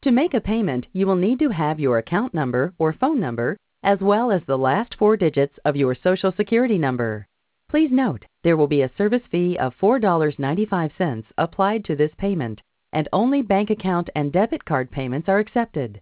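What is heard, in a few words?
Greeting, English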